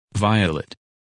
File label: "Violet", women names; Английские имена: женские